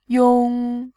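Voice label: "yong", Mandarin Chinese, tone 1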